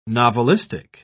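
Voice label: "novelistic", British English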